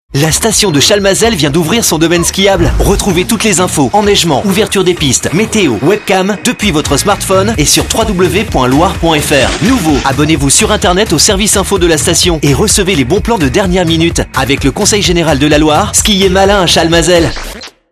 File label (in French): radio pub spot